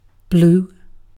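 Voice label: "blue", British English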